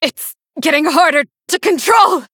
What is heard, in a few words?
Female Blood Elf; Valeera